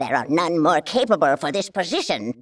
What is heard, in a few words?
female; baldurs1